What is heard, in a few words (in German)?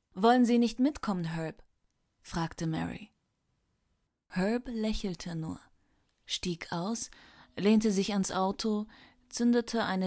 Horbuch Sprechprobe